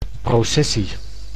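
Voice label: "processie", Dutch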